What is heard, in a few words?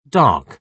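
"dark", British English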